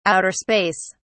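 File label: "outerspace", British English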